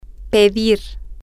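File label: to ask for, Spanish, female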